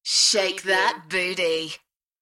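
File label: British female Shake that booty, party; beanstalk audio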